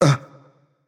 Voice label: surprised; smite; gilgamesh